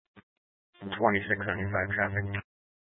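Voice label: Sheriff Dispatch, Scanner audio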